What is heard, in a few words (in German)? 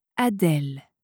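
"Adele", französische Mädchennamen, Aussprache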